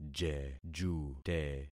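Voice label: "jejute", concatenated syllables; Affixing experiment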